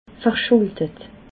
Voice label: "verschuldet", alsace

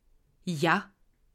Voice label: "ja", alsace

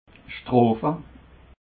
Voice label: "schtrofe", alsace

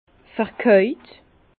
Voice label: "vekaut", Bas Rhin

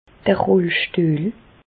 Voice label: "rollstuehl", Bas Rhin; medecine; chaise roulante

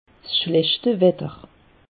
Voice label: "schlechte wetter", alsace; meteo

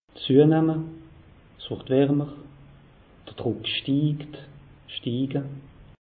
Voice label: "warmer", alsace; meteo

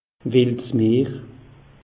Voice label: "wildsmeer", alsace; meteo

